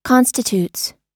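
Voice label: "constitutes", US English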